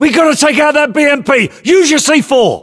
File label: voiceovers, US; english